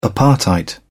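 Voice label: "apartheid", British English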